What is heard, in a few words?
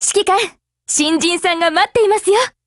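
build over, Japanese; GF Alarm; Carcano1891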